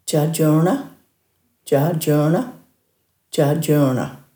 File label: "djaadjurna", Dhurga